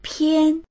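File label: "pian1", Mandarin Chinese; 篇; …篇（文章を数える）; dictionary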